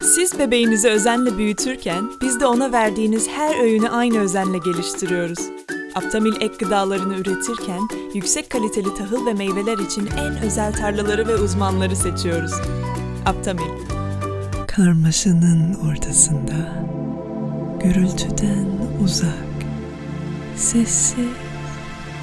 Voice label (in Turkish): tr demo türkçe